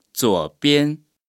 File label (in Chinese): "左邊" Chinese